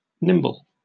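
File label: "nimble", British English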